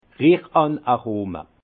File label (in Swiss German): "richanaroma", Alsace; vin; richesses aromatiques